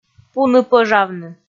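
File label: "пуны-пӧжавны", Komi, female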